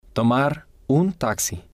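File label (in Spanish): tomar un taxi, Spanish, male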